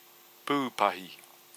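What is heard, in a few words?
"pupahi", Māori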